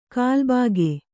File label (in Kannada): "ಕಲ್ಬಾಗೆ", Kannada